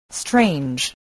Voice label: "strange", spelling